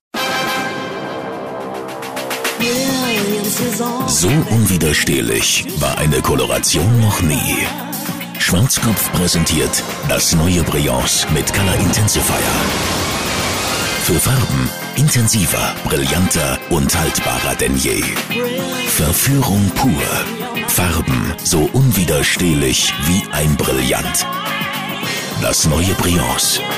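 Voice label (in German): Werbung, Kraftvoll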